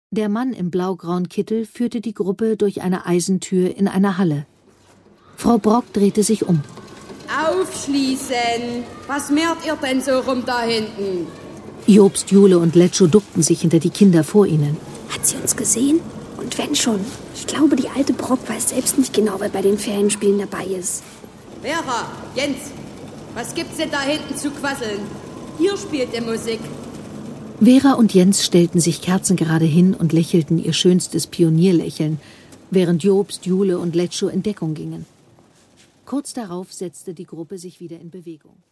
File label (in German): Sächsisch Hörspiel; Im Tal der Ahnungslosen